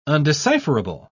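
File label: "undecipherable", British English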